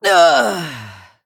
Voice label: Female Human Death

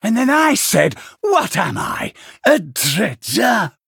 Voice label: Male Venthyr Play

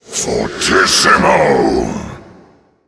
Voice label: Male Voidwraith Attack

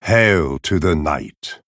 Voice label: Male Night Elf; Malfurion Stormrage

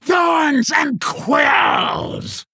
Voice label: Female Quilboar

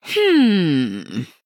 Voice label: Female Human Thinking

